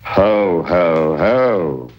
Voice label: ho ho ho male sarcasm; Voice; xmas